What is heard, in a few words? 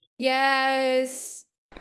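Voice Acting Spanish Female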